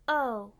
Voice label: "Oh" Disappointed

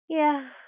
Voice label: Woman Saying "Yeah"

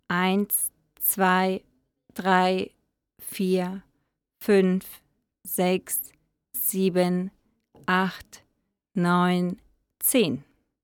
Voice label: Numbers In German

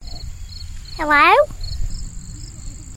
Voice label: Child hello

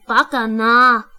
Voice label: "Idiot", Japanese

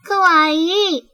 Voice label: "Cute", Japanese

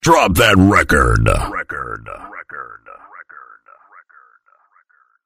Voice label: Dj Drop That Record Dj Drop; Dj Drops 24 7

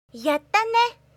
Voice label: We Did It In Japanese

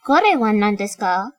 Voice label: Japanese What Is This

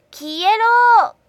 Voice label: Attack Go To Hell In Japanese

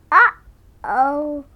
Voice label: Expressive uh oh

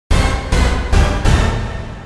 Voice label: Thrill Announcement

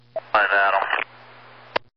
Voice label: Garbled

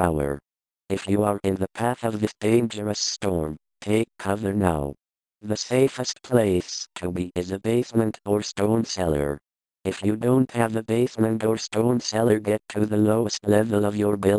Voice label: Tornado Warning Voice

